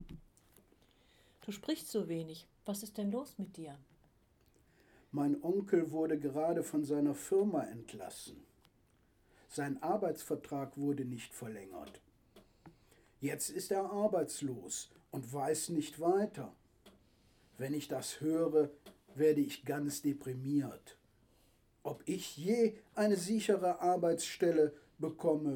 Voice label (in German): Dialog